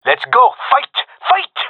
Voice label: round losing, gendermerie male